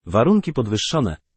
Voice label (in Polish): vhf warunki podwyzszone, pl google male; sr0wx